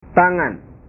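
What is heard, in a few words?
"tangan", Indonesian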